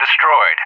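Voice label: "destroyed", English; JTAC; DCS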